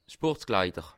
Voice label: "sportkleider", alsace

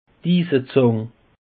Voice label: "isezang", alsace; peche

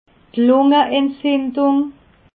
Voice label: "lungenentzindung", alsace

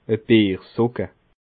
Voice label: "biersocke", alsace

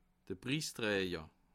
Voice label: "prisstrajer", Alsace

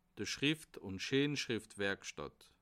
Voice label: "schrib", alsace

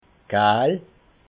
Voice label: "gaal", alsace

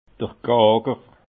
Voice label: "gaager", alsace

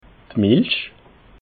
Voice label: "milch", alsace

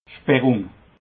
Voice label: "schperrung", Alsace

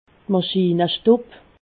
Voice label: "maschinestub", alsace

